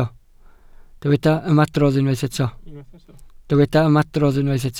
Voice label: "ymadrodd", Welsh

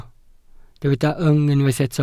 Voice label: "yng", Welsh

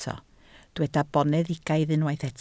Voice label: "boneddigaidd", Welsh

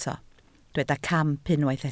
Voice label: "camp", Welsh

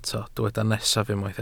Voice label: "nesaf", Welsh

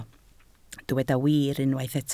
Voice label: "wir", Welsh

